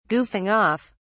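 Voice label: "goofing off", British English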